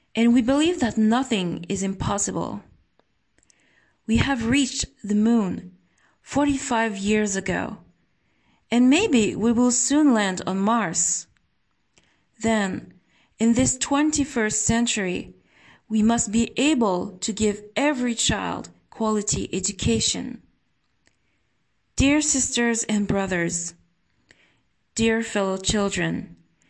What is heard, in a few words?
recitation